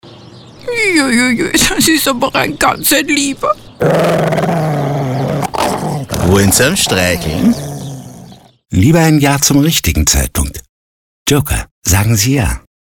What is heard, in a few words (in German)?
Hörfunk; Huendchen